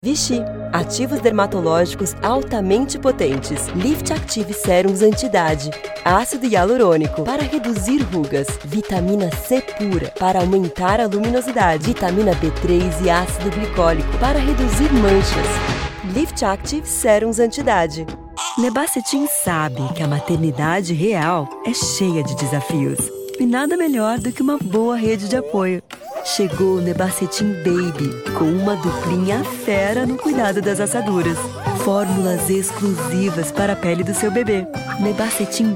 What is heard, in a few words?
Portuguese